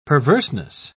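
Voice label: "perverseness", British English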